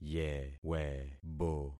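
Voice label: "yewebo", concatenated syllables; Affixing experiment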